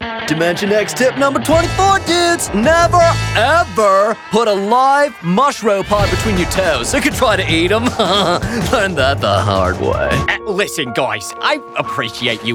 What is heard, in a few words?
animation male